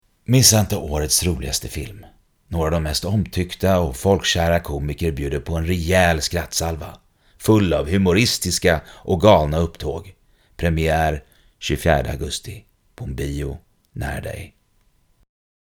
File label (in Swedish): reklam, stockholm